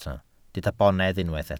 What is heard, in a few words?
"bonedd", welsh